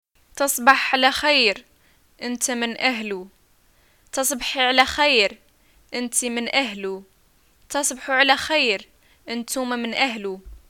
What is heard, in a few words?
greeting good night, arabic